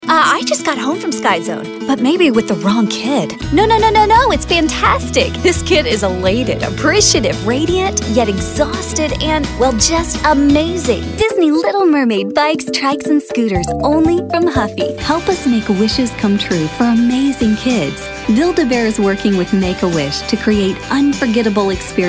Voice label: Commercial